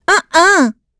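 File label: Vox Deny, voices heroes English; kingsraid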